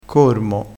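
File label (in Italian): "cormo", vocabolario dialettale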